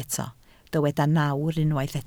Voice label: "nawr", Welsh

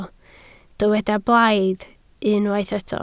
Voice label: "blaidd", Welsh

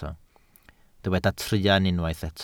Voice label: "truan", Welsh

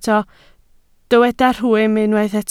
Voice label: "rhwym", Welsh

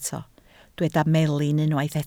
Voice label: "melin", Welsh

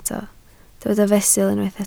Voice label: "fesul", Welsh

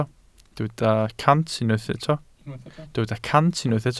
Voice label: "cant", Welsh

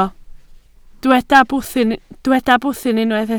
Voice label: "bwthyn", Welsh

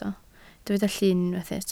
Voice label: "llun", Welsh

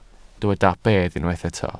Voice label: "bedd", Welsh

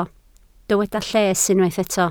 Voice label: "lles", Welsh

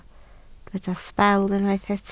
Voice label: "sbel", Welsh

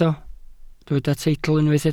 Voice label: "teitl", Welsh

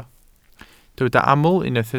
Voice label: "aml", Welsh

